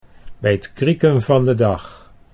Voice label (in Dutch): "bijt krieken", Dutch